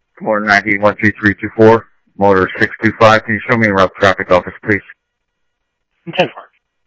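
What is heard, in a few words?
Simulcast police department, scanner audio trunk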